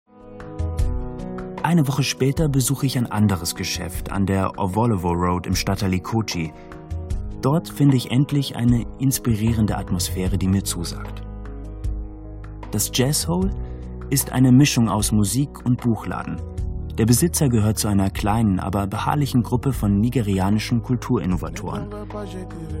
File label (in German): Hoerbuch; Jeder Tag gehoert dem Dieb